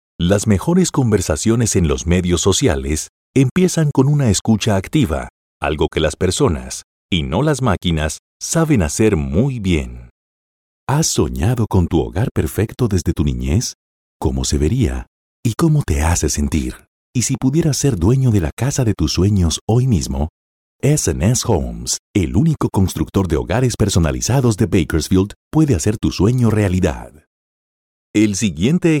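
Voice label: corporate spanish, Spanish male; Spanish male voice artist in delhi and mumbai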